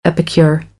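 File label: "epicure", American English; AmericanBritish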